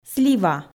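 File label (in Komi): "слива", Komi, female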